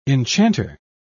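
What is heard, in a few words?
"enchanter", English, British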